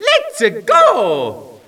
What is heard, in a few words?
lets a go, Echo inside caves, underground; DS; ML BOWSERSINSIDE; Mario